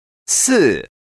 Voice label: number four Mandarin